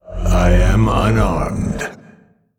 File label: Male Old God Emote ERROR NEED WEAPON